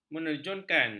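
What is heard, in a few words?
"menerjunkan", Malay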